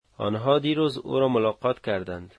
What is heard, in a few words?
they visited her yesterday, dari